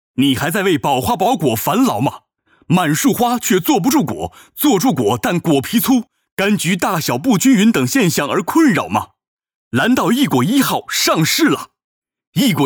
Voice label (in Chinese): 广告 激情